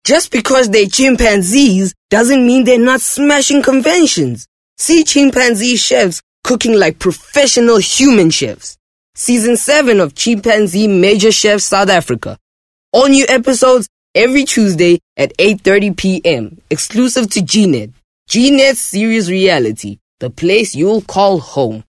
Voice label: English Promo